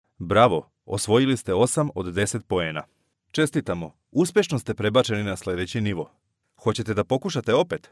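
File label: Serbian, game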